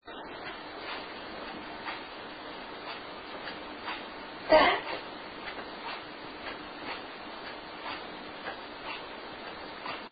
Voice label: Living Room female that